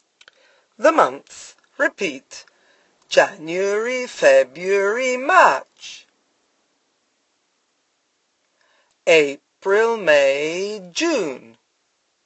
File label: months chant